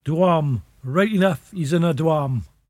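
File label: dwarm: right eenuff, he's in a dwarm, Cromarty Fishertoun dialect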